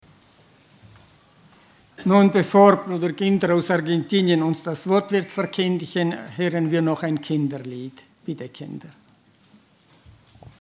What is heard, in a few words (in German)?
Ansprache; Die biblische Gemeinde Gottes